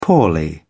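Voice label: "poorly", British English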